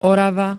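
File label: "Orava", Slovak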